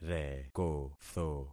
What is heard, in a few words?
"rekotho", concat syllables; Affixing experiment